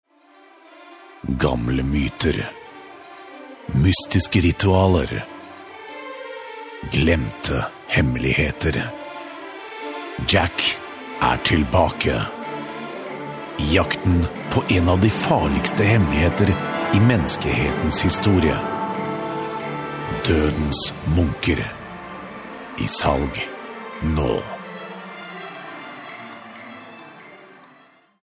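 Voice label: norwegian male narration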